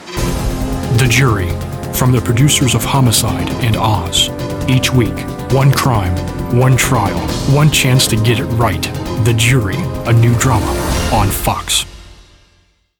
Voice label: Dramatic heavy